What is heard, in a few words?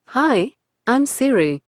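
Indian English; iOS 26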